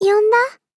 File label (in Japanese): VO jp